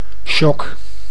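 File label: "shock", Dutch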